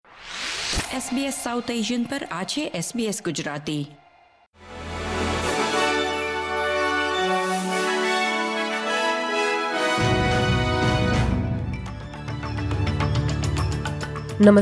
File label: gujarati news bulletin; SBS Gujarati Australian update: 15 July 2025